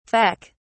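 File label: "feck", British English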